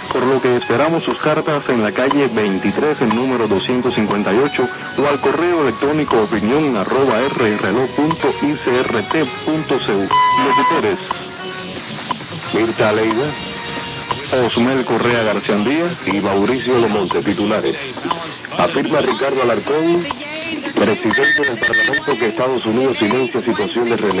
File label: Radio Reloj Cuba